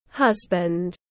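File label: "Husband", English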